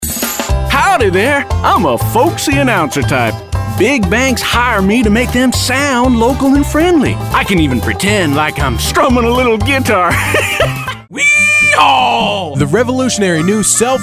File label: Character